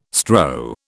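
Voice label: "strow", British English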